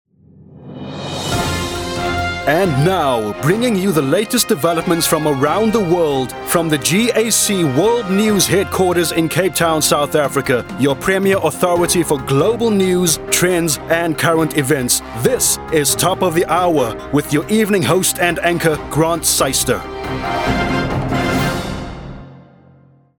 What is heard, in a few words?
Broadcast News Intro; voice overs